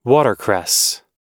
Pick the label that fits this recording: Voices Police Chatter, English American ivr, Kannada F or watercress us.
watercress us